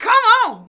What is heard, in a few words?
"come on", shouts; Best Service; Hyper Dance